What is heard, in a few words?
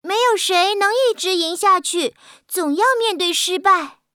sad